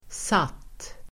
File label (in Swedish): "satt", swedish